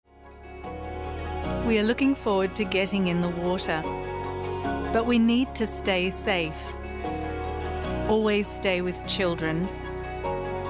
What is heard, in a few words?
Life Saving ENGLISH; Water Safety Community Announcement